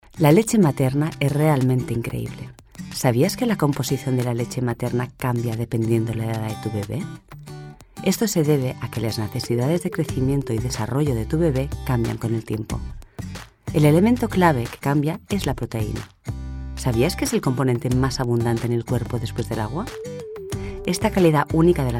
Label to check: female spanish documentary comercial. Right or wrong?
Right